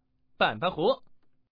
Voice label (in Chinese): banbanhu, changsha man; mahjongjinghai